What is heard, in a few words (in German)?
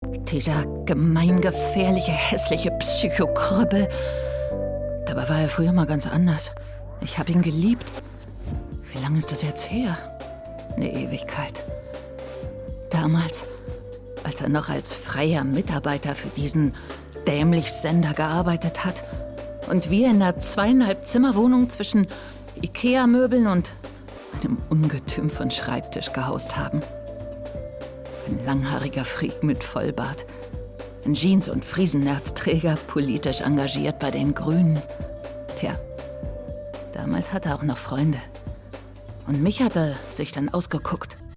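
Hörspiel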